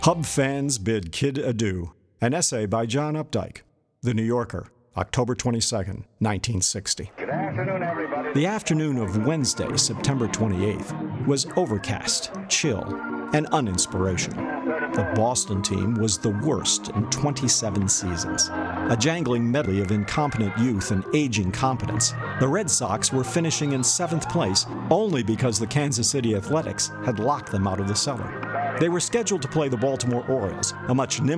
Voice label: audiobook